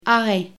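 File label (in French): "arret", French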